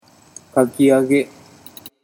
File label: "kakiage", Japanese